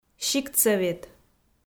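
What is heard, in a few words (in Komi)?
"сиктсӧвет", Komi, female; kpv audio dict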